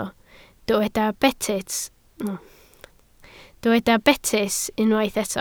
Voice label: "betys", Welsh